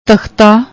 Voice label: "takhtaa", Punjabi; ਤਖਤਾ